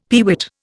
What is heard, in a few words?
"peewit", Indian; Dictionary